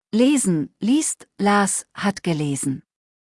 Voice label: "lesen", deutsch; verben kurzliste

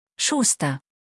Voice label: "Schuster", deutsch nachnamen

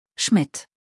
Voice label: "Schmid", deutsch nachnamen

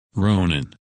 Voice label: "Ronan", men names

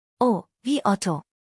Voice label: "otto", deutsch; spell de; buchstabierung alt

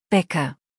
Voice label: "Becker", deutsch nachnamen

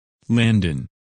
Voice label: "Landon", names, men

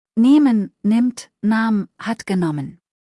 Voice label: "nehmen", deutsch; verben kurzliste

